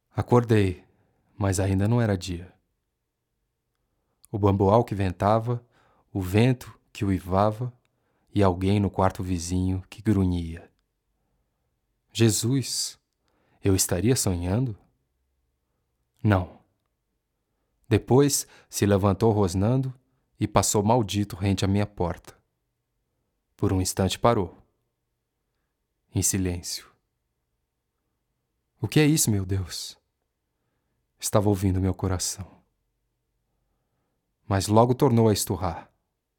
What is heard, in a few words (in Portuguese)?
NARRACAO; meu tio lobisomem